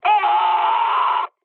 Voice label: damaged pilot, mood med, speech; War Thunder